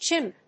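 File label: "chimp", English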